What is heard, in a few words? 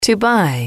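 to buy, female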